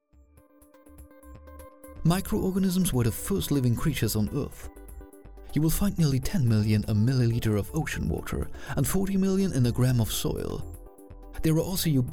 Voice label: Englisch Documentary